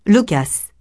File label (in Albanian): "loquace", frengjisht